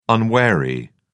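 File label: "unwary", English, British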